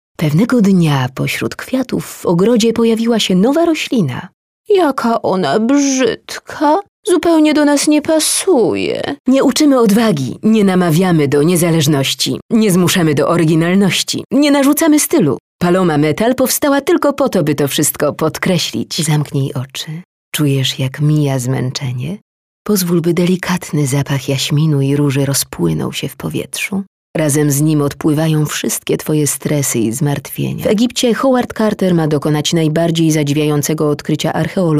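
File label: Polish female; lektoring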